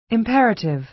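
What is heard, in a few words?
"imperative", American English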